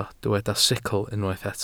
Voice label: "sicl", welsh

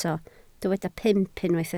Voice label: "pump", Welsh

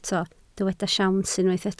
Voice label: "siawns", Welsh

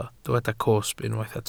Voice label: "cosb", welsh

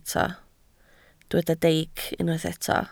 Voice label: "deic", Welsh

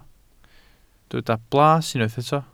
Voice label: "blas", welsh

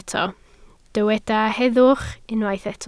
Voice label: "heddwch", Welsh

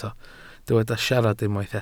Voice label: "siarad", Welsh

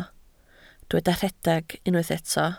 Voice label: "rhedeg", Welsh

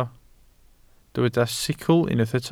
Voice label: "sicl", welsh

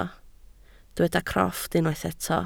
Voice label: "crofft", welsh

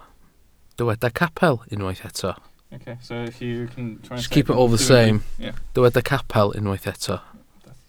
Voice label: "capel", Welsh

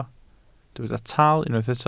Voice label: "tal", welsh

